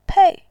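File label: "pei", Mandarin, tone 4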